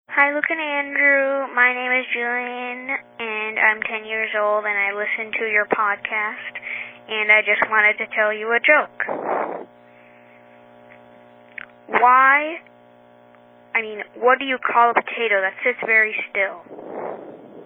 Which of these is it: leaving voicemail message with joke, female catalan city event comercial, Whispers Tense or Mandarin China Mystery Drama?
leaving voicemail message with joke